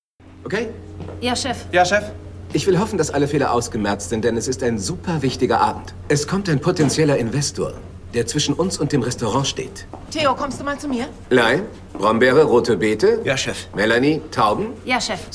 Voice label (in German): Synchron, maenner